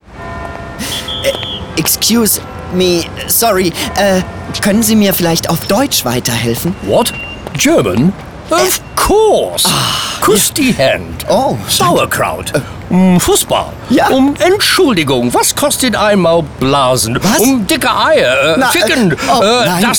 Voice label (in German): Funkspot; Inlingua